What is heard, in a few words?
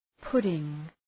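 "pudding", English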